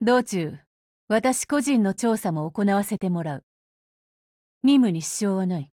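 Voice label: operation begin, Japanese; 人物：少女前线:贝奥武夫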